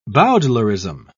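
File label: "bowdlerism", English, British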